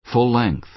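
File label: "full-length", American English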